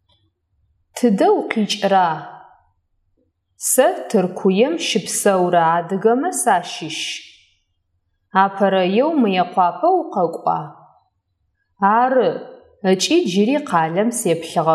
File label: dialog